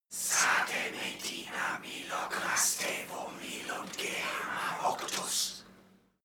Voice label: evoke whisper